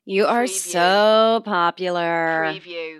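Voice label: Woman Says You're So Popular Sarcastic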